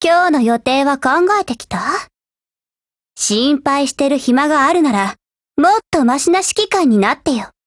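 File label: HELLO Japanese, Voice; GF Alarm; FNFAL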